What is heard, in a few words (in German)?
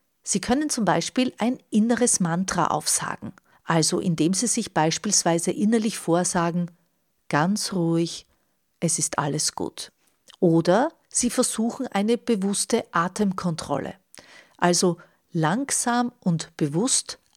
streitstimmen